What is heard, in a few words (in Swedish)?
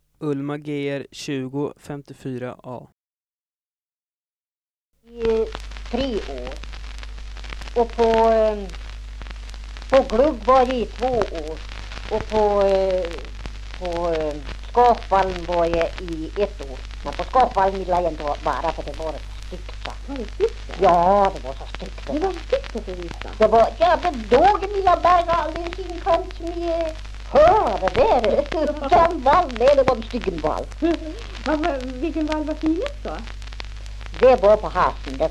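Järvsö Hälsingland, dialekter; Folkminnen; Svenska sagor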